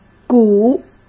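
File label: "鼓", Mandarin Chinese; hsk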